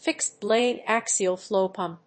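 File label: "fixed blade axial flow pump", English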